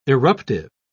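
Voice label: "irruptive", British English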